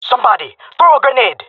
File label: request grenade, jungle male; vo; agents; Source Model Packs; Counter Strike Model Pack; CS2